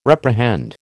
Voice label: "reprehend", American